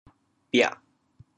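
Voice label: "biah4", Teochew, chaoyang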